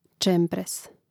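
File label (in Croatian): "čempres", Hrvatski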